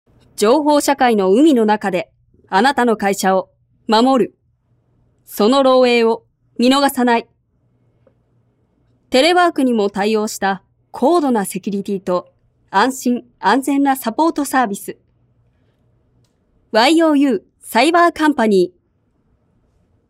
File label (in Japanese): IT ナレーション